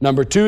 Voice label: number two male; Voice; numbers and letters